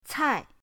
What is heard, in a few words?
"cai4", pinyin